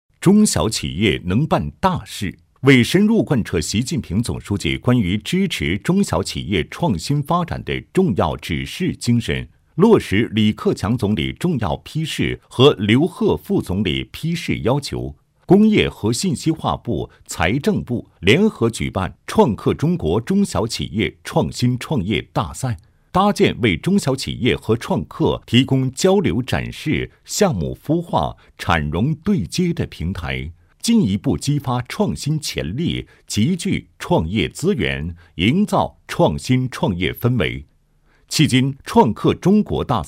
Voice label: 男 专题 宣传片; 第六届创客中国大赛宣传片

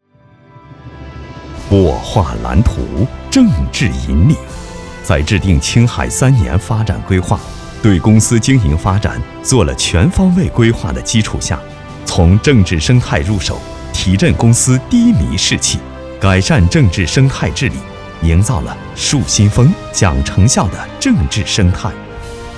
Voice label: 男 宣传片; 青海

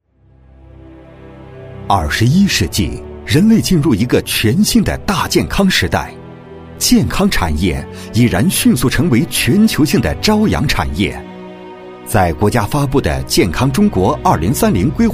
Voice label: 男 宣传片; 蓄芝源

